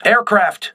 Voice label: voice message air alert, crew ships english